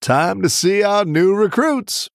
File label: Male Human Hire